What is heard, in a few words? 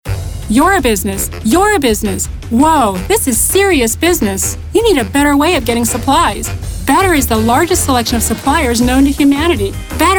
voice Narration